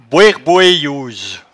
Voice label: "boerboeyouse", patois jura

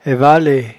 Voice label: "aivalaie", patois jura

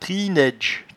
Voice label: "trinnaidge", patois jura

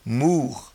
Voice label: "moure", patois jura

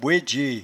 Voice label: "bouedge", patois jura

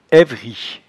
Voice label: "aivri", patois jura

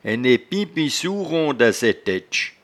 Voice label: "enepiepeinsoueron", patois jura

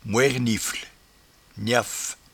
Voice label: "moernifleniaffe", patois jura

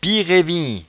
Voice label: "piereevin", patois jura

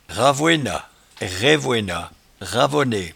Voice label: "ravoenat", patois jura

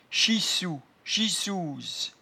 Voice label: "chissou chissouse", patois jura

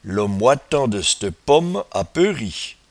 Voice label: "lomoitandectepomme", patois jura

